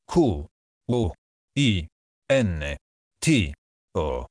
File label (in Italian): "quinto", Italian